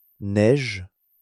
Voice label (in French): "neige", French